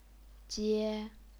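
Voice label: "jie", Chinese, first tone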